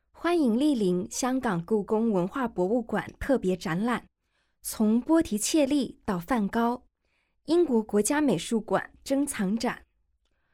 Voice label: Mandarin, audio guide; g9-botticelli-to-van-gogh

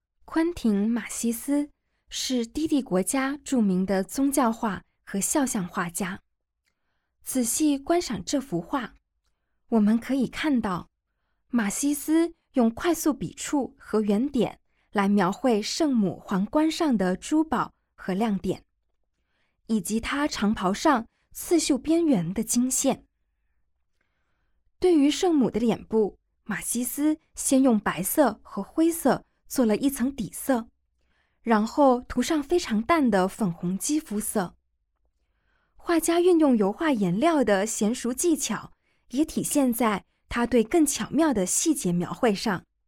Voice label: Mandarin, audio guide; g9-botticelli-to-van-gogh

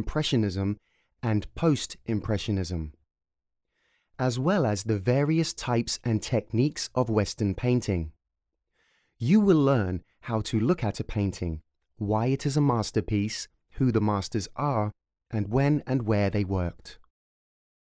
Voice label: English, audio guide

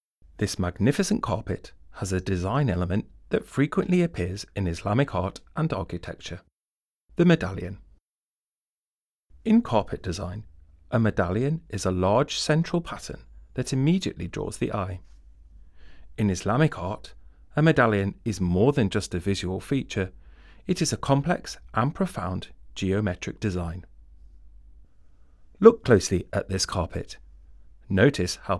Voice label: English, audio guide; Rothschild medallion carpet; Tabriz, Safavid Iran; Mid-16th century

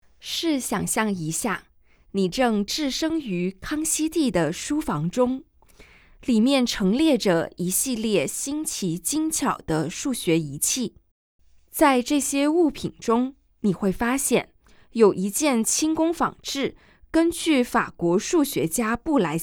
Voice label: Mandarin; audio guide; versailles

